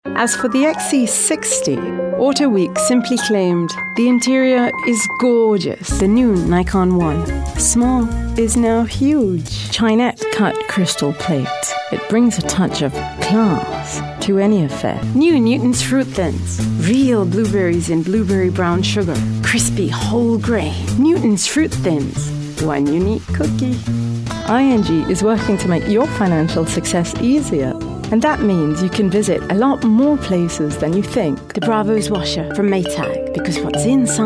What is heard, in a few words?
Brit